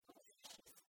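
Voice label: "отсасис", Komi, female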